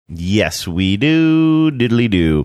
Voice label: saying yes we do diddily doo sing songy

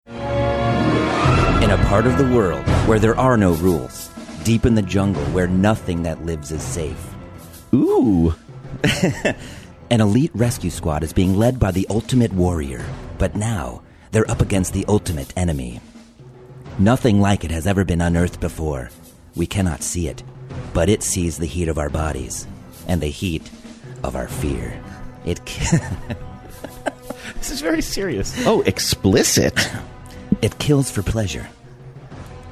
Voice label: movie trailer voiceover; predator

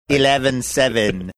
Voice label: saying eleven seven australian

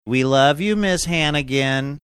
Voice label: singsongy

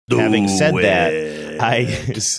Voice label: do it deep drawn out manner

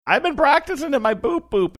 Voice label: funny

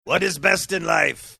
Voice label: what is best in life gruff

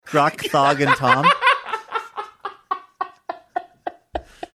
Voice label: saying grok thog laughing

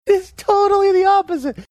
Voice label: high pitched; it's totally opposite

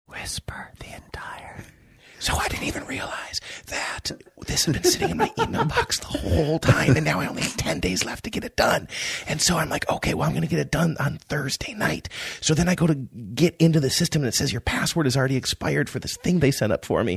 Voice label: whispering talking quietly

